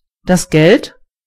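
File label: cash, German voices words; gcompris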